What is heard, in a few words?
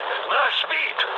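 Russian aircraft destroyed mood low, speech; War Thunder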